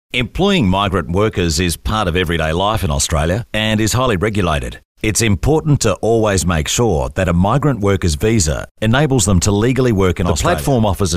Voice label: Natural